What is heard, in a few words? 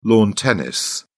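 "lawn tennis", British English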